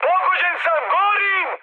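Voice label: Serbian on fire mood high, speech; War Thunder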